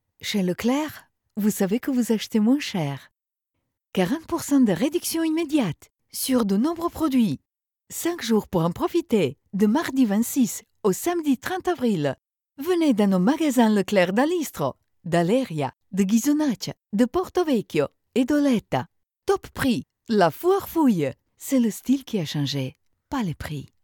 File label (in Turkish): Fransizca Kadin